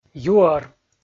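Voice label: "юӧр", Komi, male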